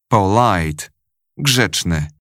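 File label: "polite", English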